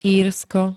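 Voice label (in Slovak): "Írsko", Slovak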